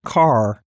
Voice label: normal car, American; American IPA phonic